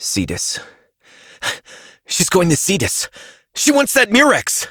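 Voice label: Voice, English; New War; Quarters; Operator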